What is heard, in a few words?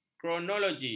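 "kronologi", Malay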